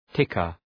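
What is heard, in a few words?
"ticker", English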